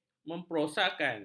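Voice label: "memprosakan", Malay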